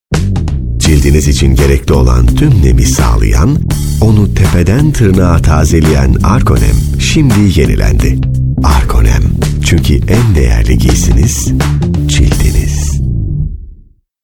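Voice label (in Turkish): reklam, Turkish; arko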